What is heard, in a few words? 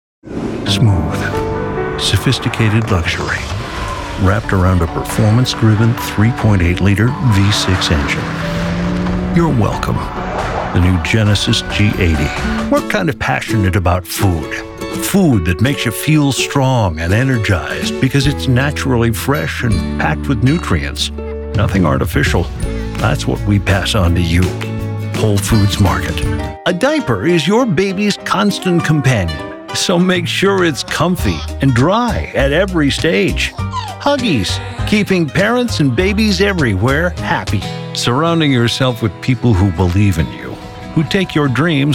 Commercials